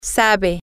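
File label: she knows how, Spanish, female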